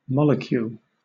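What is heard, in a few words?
"molecule", British English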